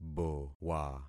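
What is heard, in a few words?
"bowa", concatenated syllables; Affixing experiment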